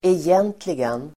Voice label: "egentligen", Swedish